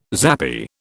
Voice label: "zappy", British

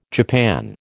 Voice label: "japan", American

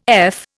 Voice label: "eff", Indian

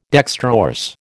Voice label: "dextrorse", American

